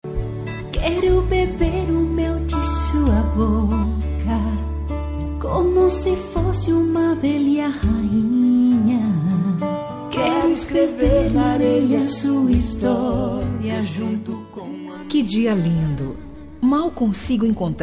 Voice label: dia dos namorados aniversário, voz feminina

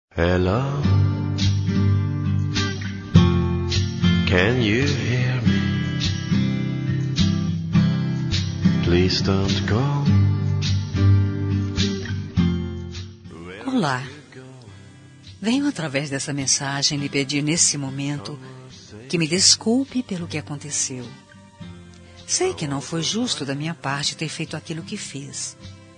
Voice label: des fem amiz, Telemensagens; Reconciliação Amizade – Voz Masculina; Linda

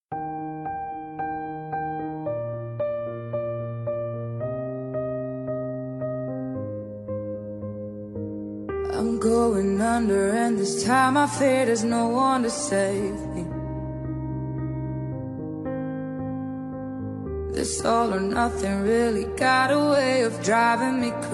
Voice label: Telemensagem Romântica Distante

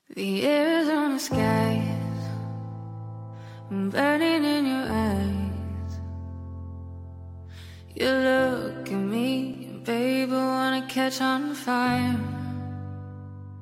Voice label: fem, Voz Feminina; dia dos namorados para namorada lgbt

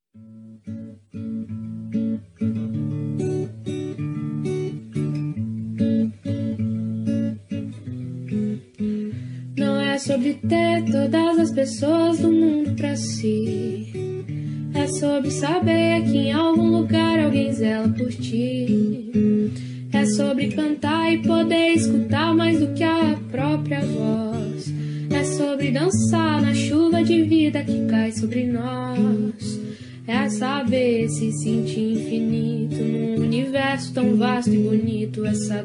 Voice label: aniver filha fem, Telemensagem de Aniversário de Filha – Voz Feminina

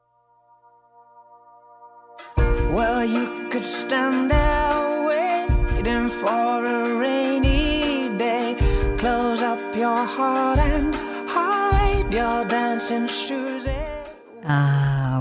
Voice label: esposo fem filho, voz feminina; dia dos pais marido espera o primeiro filho

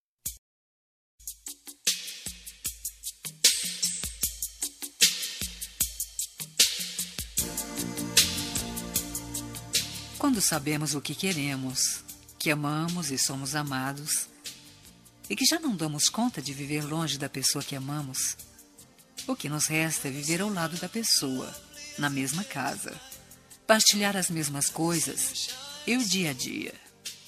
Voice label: fem, Telemensagem Erótica, Voz Feminina

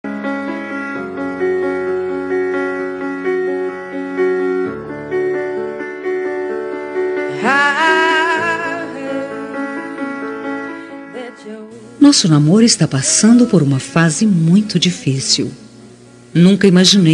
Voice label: toque p nao fem, Toque para Não Terminar – Voz Feminina; telemensagem

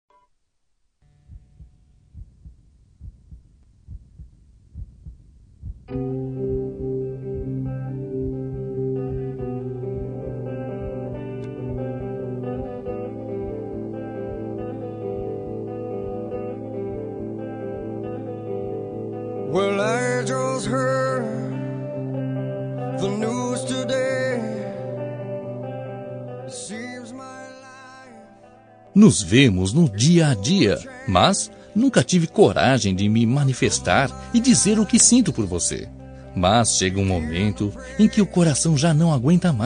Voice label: Telemesagem Paquera Colega de Trabalho, Voz Masculina; telemensagem

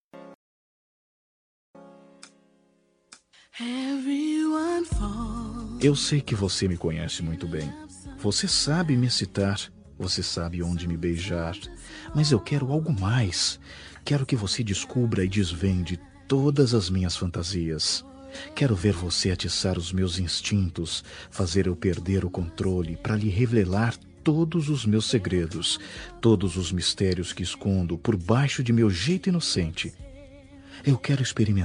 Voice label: erotica masc, Voz Masculina; Telemensagem Erótica

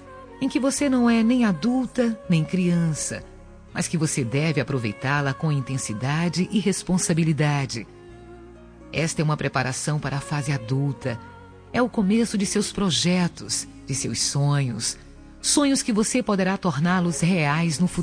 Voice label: Plural Adolescente, aniversario de filha voz feminina

